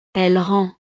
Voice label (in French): "elle rend", frances